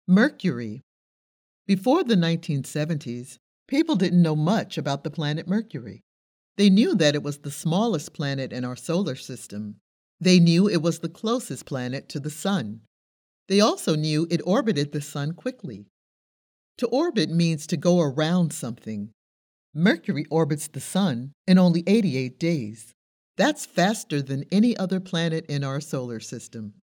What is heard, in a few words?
E learning; Mercury